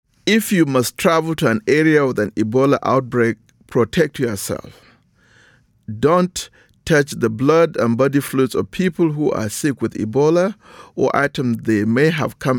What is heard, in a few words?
English PSA Message; vhf; ebola